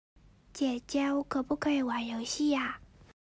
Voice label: 岁女孩

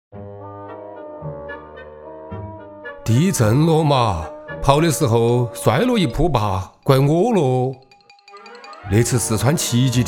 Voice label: 方言 四川 诙谐; 地震娃儿

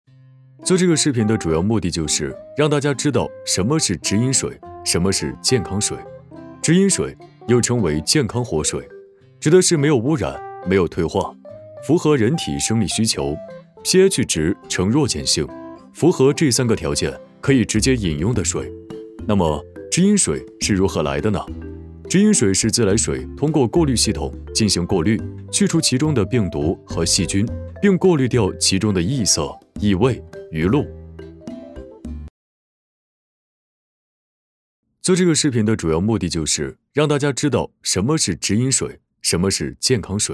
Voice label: 自然讲述净水设备

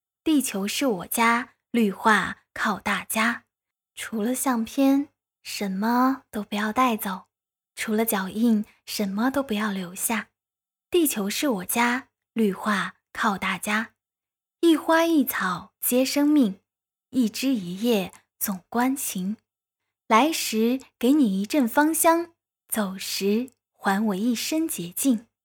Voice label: 广告成熟温和气质

